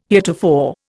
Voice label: "heretofore", Indian